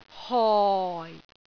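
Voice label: "hoi4", Cantonese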